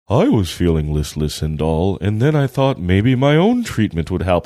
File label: saying i was feeling listless and dull funny